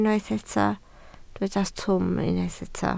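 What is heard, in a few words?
"twym" Welsh, spoken word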